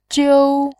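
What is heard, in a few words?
"jiu", Mandarin, first tone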